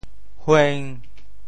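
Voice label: "hueng1", Teochew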